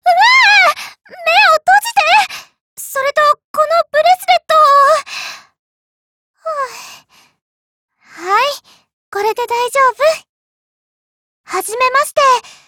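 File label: GAIN, Japanese; Medusa; girlsfrontline voices jp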